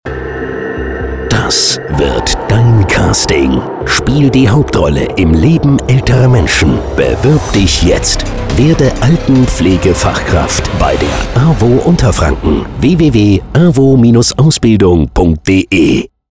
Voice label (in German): Funkspot Ausbildungskampagne